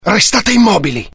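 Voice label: curious, Italian; mohaa; dialogue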